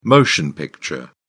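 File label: "motion picture", British English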